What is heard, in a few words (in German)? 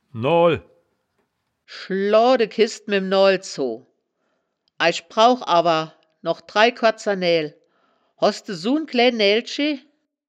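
"noal", Wittlicher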